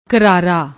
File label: "krara", Punjabi